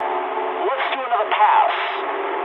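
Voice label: bomb failure mood medium, speech English; War Thunder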